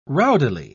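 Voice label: "rowdily", British English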